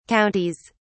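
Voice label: "counties", British English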